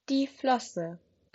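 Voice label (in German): "Flosse", German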